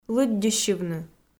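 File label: "лыддьыссьывны", Komi, female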